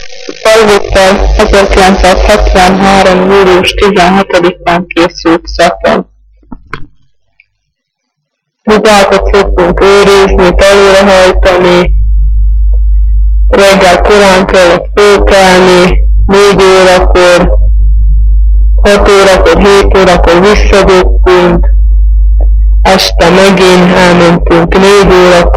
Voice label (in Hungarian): nyelvjaras gyujtesek